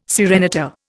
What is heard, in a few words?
"serenata", Indian